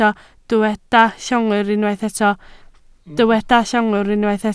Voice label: "llongwr", Welsh